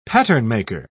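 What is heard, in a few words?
"patternmaker", British English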